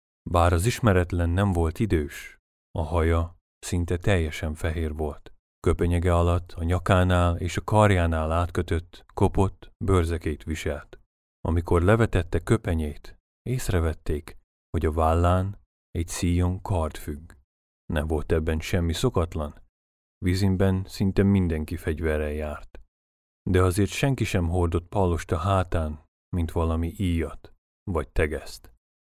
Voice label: male hungarian voice over talent narrative